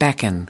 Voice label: "beckon", us